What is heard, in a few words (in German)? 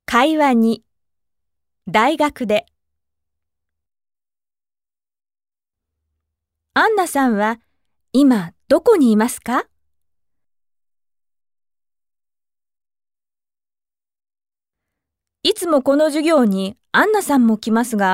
dialog; konnichiwa band 2 cd 1